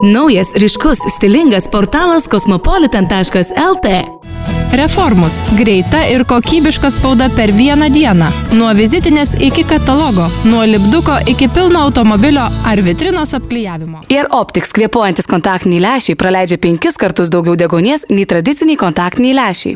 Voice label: Litvanca Kadin, Kadın Ses; litvanca seslendirme; kadin sesler

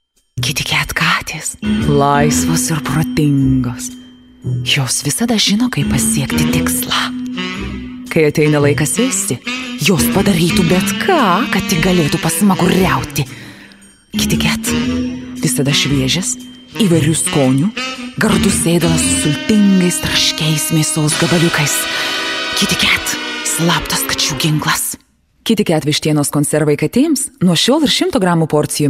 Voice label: Litvanca Kadin, seslendirme; Kadın Ses; kadin sesler

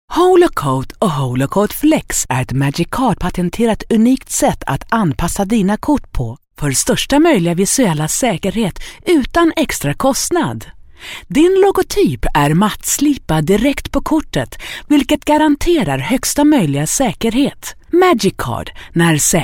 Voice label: Isvecce Kadin; seslendirme

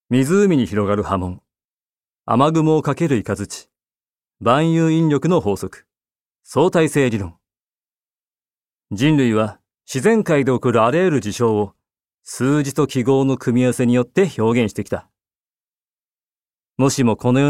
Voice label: Japonca Erkek; seslendirme

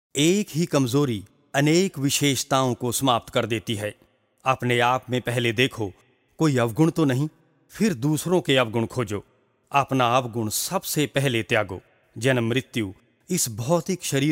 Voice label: Hintce Erkek; seslendirme

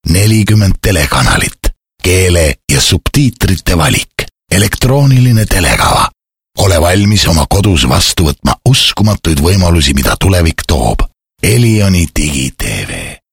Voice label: Estonca Erkek, seslendirme

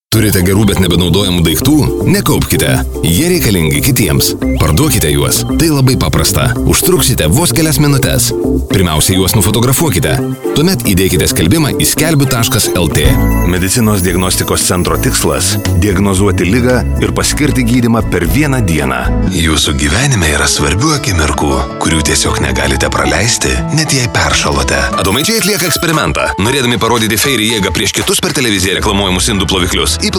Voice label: Litvanca Erkek, seslendirme; erkek sesler

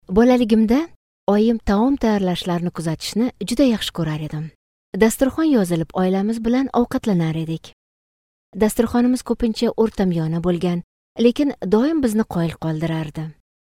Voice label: Ozbekce Kadin, Kadın Ses

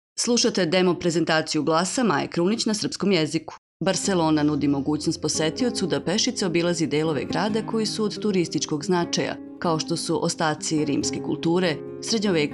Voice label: Sirpca Kadin, seslendirme; kadin sesler

